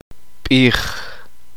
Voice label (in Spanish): uspanteko nombre